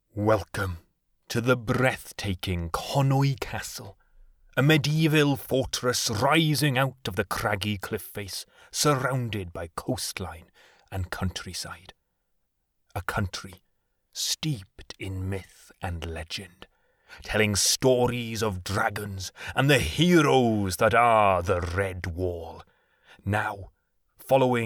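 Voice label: Welsh English, male, voice over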